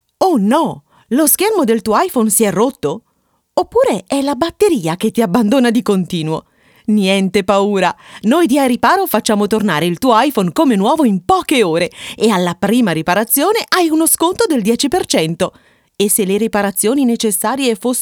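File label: Italian, female, commercial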